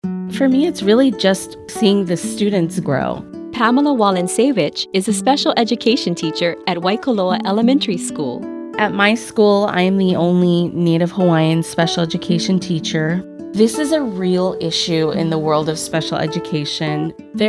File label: Radio